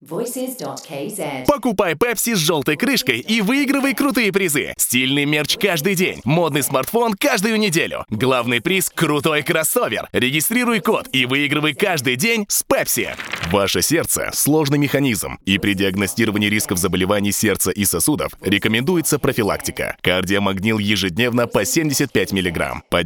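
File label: russian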